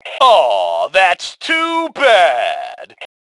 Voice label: Taunt Aww, Male; T2 Male 01